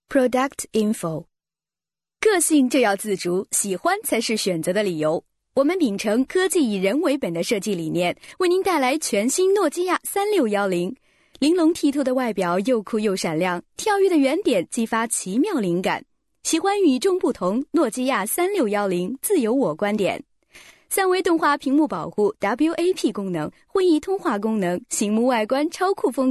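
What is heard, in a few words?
chinese mandarin female commercial; voice demos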